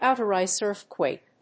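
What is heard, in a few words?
"outer-rise earthquake", English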